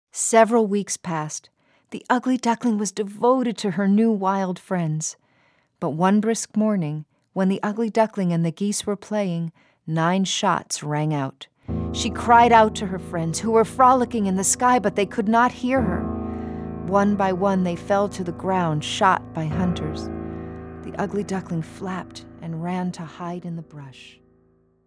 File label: Narration Sample